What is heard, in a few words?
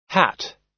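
"hat", US English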